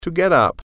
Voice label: "get up", English